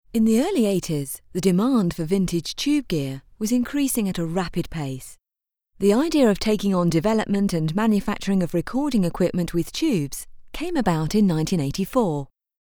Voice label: VO UK FEMALE BYPASS EQ